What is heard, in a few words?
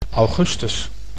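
"augustus", Dutch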